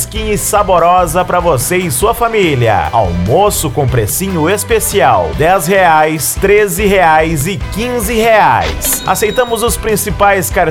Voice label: Locutor Marmitex; Marmitex Do Jo; Osasco SP

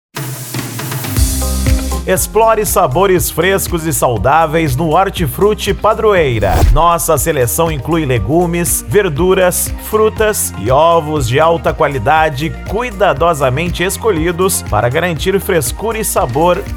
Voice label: Locutor Interno; Hortifruti Padroeira; Carapicuiba SP

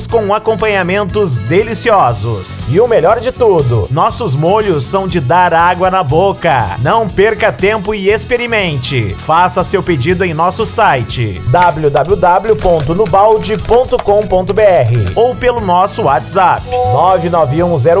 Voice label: Locutor Frango Frito Crocante; Nubalde; Penha SC

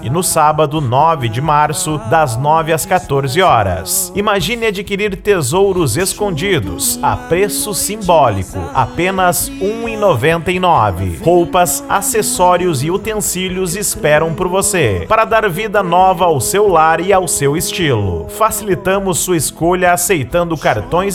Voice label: Locutor Bazar Igreja; Comunidade Evangelica Efraim; Vinhedo SP

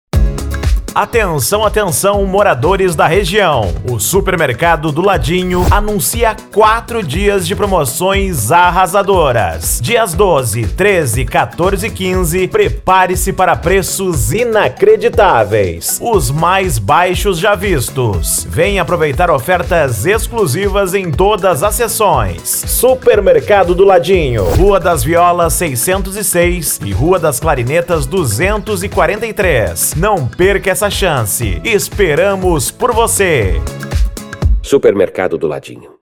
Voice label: Locutor Mercearia; Expectativa Mercearia Do Ladinho; Belo Horizonte MG

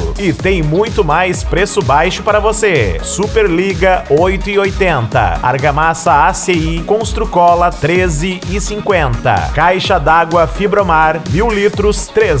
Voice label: Locutor Materiais De Construcao OFERTAS; Miguel Pereira RJ

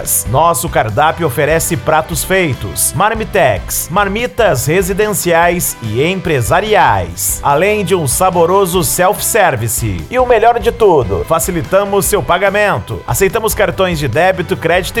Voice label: Locutor Restaurante; Divininho; Ituiutaba MG

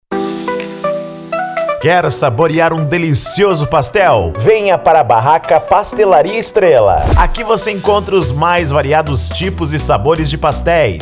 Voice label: Locutor Trilha Cantada; Barraca Pastelaria Estrela; Licinio De Almeida BA

